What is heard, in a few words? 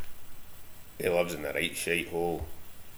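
"shitehole", slang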